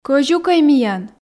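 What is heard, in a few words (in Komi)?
кӧзюкӧй миян, Komi, female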